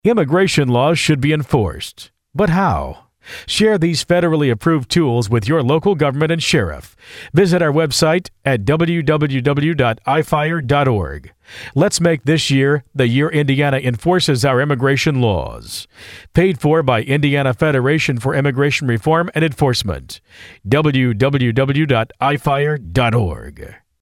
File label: ad